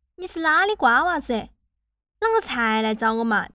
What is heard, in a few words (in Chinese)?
四川话